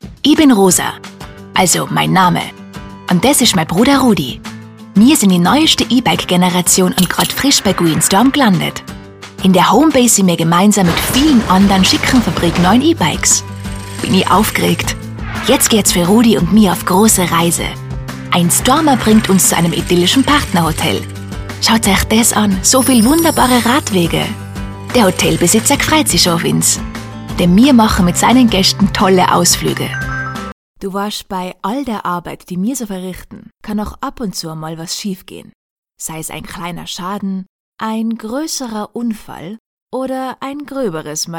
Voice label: female austrian and german tyrolean dialect compilation